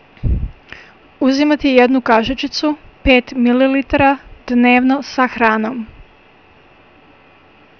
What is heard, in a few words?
Bosnian, Take one teaspoonful (5 mL) daily with food.; Uzimati jednu kasicicu (5 mL) dnevno sa hranom.